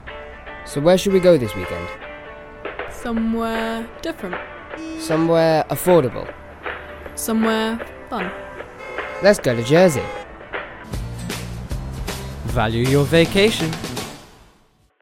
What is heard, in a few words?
Audio Ad; Jersey